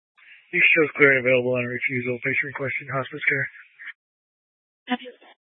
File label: Fire Dispatch